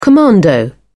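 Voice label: "commando", English, British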